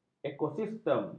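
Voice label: "ekosistem", Malay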